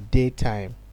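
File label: "daytime", Nigerian Pidgin, male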